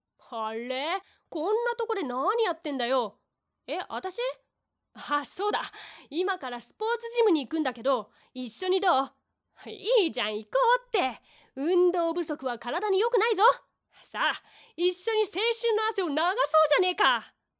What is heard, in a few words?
boy